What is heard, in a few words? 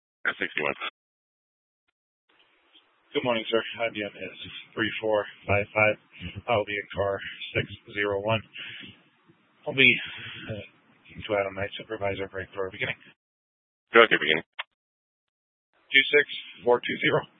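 Troop 2A Dispatch, scanner audio recording; Troop 2A Dispatch (Chaffee, Custer, Fremont, Lake, Park)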